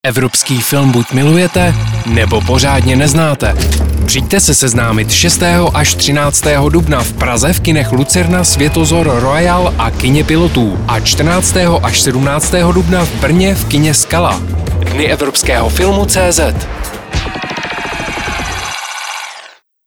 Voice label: RADIO SPOT; EXPRESS